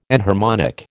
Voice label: "enharmonic", American

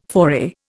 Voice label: "foray", Indian

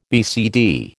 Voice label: "BCD", American

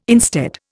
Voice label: "instead", Indian

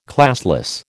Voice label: "classless", American

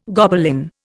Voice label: "Gobelin", Indian

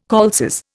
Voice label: "calces", Indian

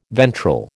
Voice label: "ventral", American

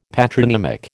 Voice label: "patronymic", American

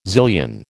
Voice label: "zillion", American

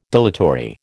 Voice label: "dilatory", American

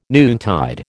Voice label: "noontide", American

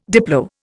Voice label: "diplo", Indian

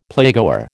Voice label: "playgoer", American

